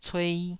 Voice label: "cuei2", Taiwanese syllable

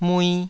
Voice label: "mui2", taiwanese

